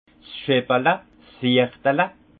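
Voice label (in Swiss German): "scheppele", Haut Rhin; vin; chopine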